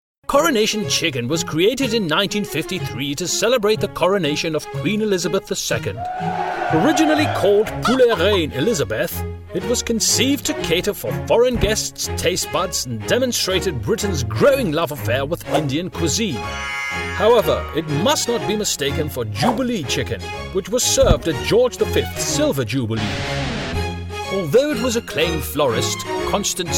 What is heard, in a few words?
posh